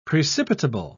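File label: "precipitable", British English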